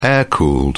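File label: "air-cooled", British English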